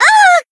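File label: Voice damaged, Japanese; ashuthia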